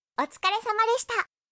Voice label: anime, Anime Character Farewell Voices! "Sayonara" Phrases; anime characters say goodbye